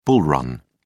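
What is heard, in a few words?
"bull run", British English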